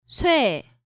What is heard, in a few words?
"Soe", Korean